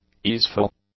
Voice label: "easeful", English; adjectives